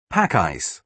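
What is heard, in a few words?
"pack ice", British English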